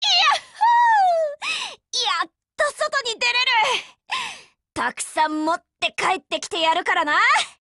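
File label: operation begin, Japanese; HK512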